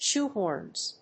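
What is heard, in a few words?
"shoe-horns", English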